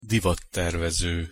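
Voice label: "divattervezo", Hungarian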